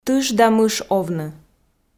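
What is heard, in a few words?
"тыш да мыш овны", Komi, female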